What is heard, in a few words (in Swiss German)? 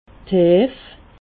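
"heef", Alsatian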